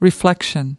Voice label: "reflection", us

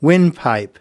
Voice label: "windpipe", us

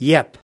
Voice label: "yep", us

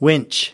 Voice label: "winch", us

